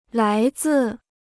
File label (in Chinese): "来自", Chinese